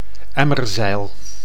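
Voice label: "emmerzeil", Dutch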